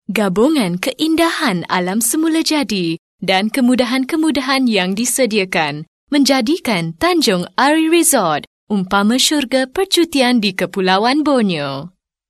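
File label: malay female voice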